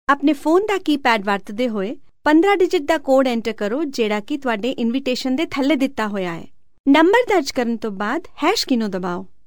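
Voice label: Punjabi, narration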